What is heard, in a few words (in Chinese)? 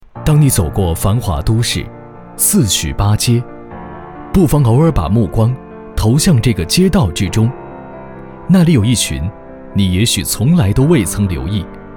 男 宣传片抒情; 新华街